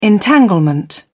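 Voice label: "entanglement", British English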